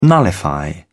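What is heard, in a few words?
"nullify", British English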